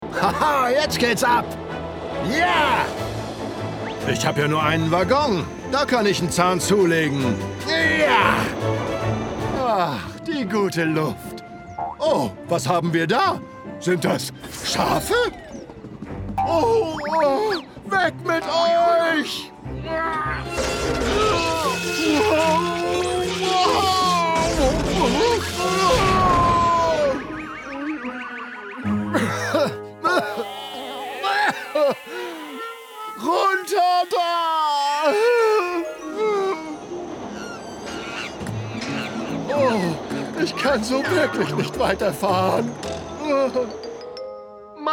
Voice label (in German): Synchron Anime facettenreich lustig; Titipo; Lastzug; Manny